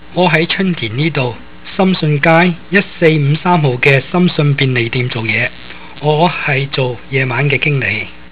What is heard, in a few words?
from The Cantonese Tape Set